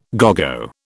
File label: "À gogo", British